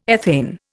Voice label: "ethane", Indian